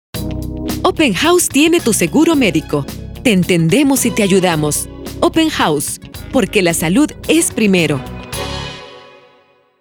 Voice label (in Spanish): Locucion institucional